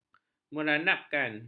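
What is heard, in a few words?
"meranapkan", Malay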